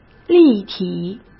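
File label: "立体", Mandarin Chinese; chinese flashcards; hsk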